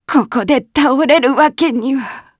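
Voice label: Vox Dead, Japanese; kingsraid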